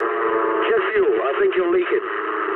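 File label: English tank damaged mood low; War Thunder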